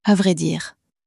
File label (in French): à vrai dire, common french words